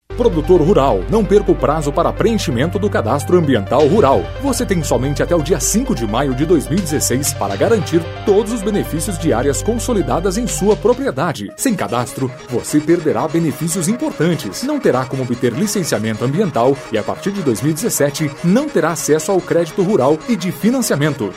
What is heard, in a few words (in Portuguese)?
spot radio